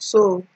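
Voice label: "so", Nigerian Pidgin, female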